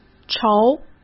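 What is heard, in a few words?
"仇", Mandarin Chinese; chinese-flashcards; hsk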